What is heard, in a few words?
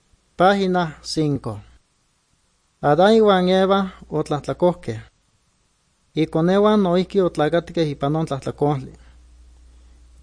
good news, nahuatl guerrero chilapa